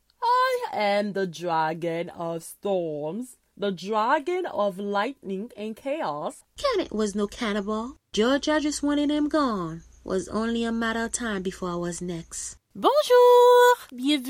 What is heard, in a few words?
haitian creole promos